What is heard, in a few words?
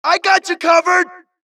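suppress, dialogue, Allies; mohaa; Mission 2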